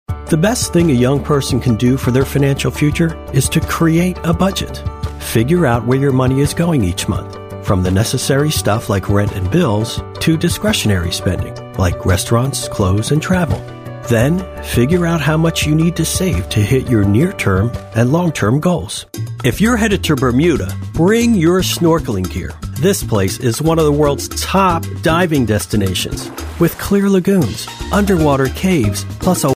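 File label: US English, male, Narration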